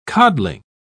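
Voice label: "codling", English, British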